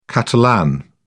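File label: "catalan", British English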